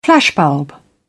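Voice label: "flashbulb", British English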